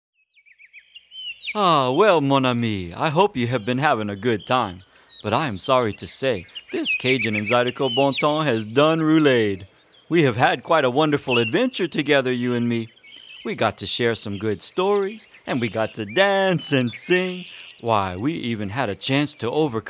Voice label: Narration